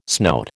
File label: "snout", American